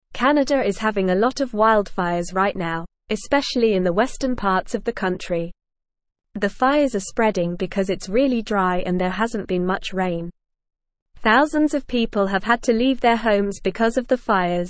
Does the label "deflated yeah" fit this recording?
No